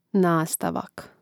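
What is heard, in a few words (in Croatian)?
"nastavak", Hrvatski; mreznik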